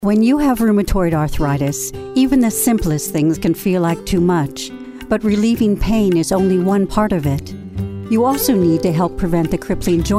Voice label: Commercial